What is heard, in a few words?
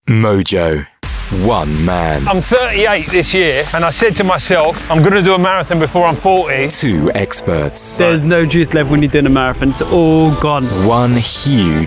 male, UK, promo; english southern accents